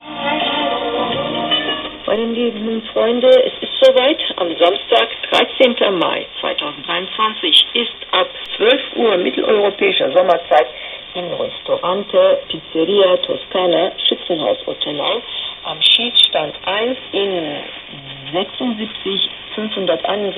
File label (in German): TRT deutsch 9840kHz